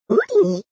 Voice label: yes, villager